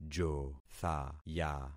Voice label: "jothaya", concat syllables; Affixing experiment